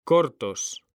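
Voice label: "CORTOS", Spanish, male; mekanta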